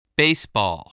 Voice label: "baseball", English

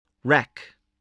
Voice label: "wreck", voice, english

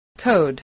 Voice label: "code", voice

